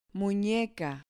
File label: "muneca", Spanish